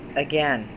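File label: "again", Canadian English, female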